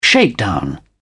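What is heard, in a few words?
"shakedown", British English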